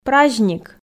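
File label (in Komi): "праздник" Komi, female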